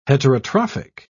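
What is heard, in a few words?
"heterotrophic", English, British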